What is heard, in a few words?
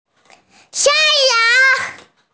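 "goat", hearing impaired; shan1yang2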